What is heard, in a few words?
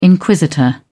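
"inquisitor", British English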